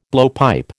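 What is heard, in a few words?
"blowpipe", American English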